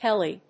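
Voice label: "HELI", English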